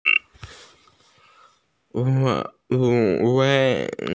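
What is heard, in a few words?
"fishing net", Mandarin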